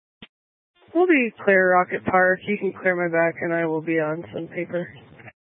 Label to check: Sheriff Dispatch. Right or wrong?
Right